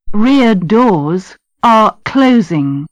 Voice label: rear doors are closing